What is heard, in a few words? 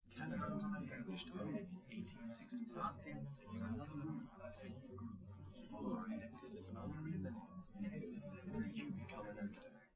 male liverec; SiSEC11; underdetermined